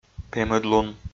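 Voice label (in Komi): "пемыдлун", Komi, male; audio dict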